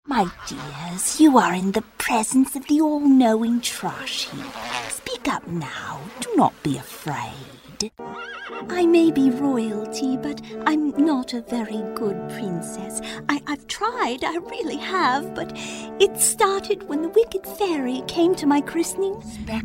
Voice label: english british video games